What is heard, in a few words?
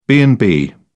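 "b and b", British English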